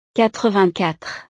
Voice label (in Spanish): "quatre-vingt-quatre", frances